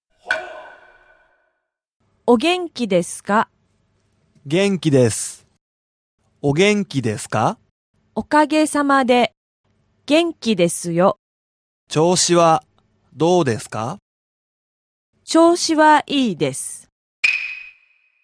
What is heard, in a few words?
dialog; JapanesePod101; Beginner Season 1